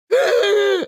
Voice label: Speech Quick Commands Enemy Building; World Of Warships